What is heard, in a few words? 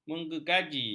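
"menggergaji", Malay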